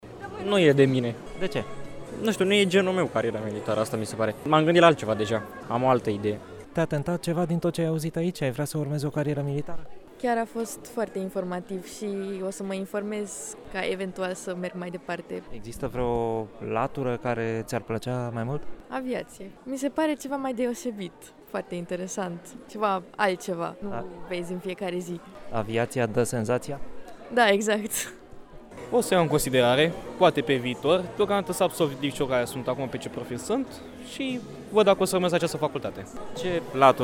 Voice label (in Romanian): VOX ELEVI; caravana fortelor aeriene la casa de cultura a sindicatelor constanta